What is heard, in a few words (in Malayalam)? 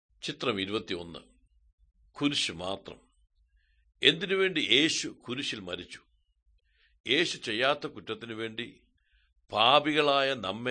good news malayalam, drama